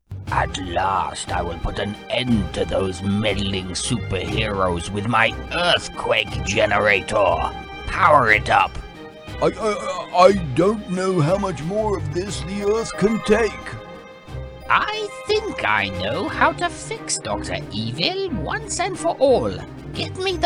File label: Animations Voice over